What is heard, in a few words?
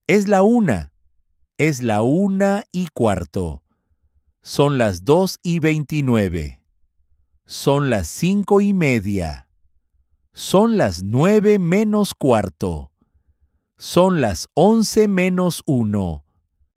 telling the time in spanish basics